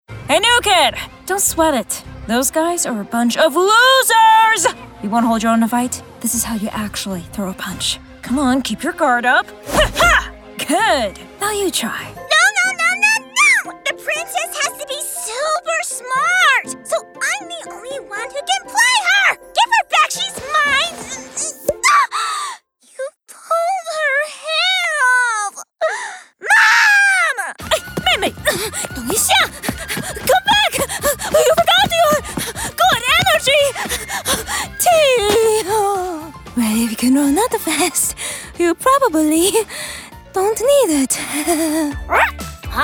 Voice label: Animation